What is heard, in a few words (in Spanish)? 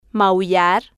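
"maullar", spanish, female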